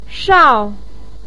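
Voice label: "shao", Chinese pinyin, fourth tone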